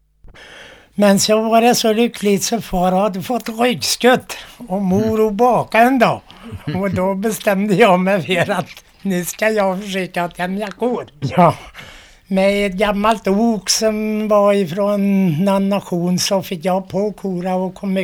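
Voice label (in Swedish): Acklinge Västergötland, Svenska sagor, dialekter; Folkminnen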